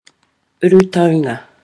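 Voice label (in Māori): "urutaunga", Māori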